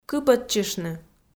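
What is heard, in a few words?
"кыпӧдчыштны", Komi, female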